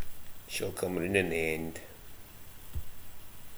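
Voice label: "roon", slang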